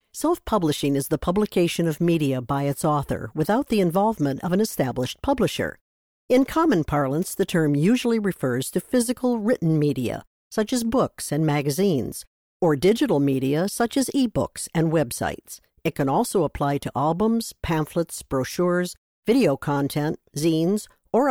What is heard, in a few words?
dry vox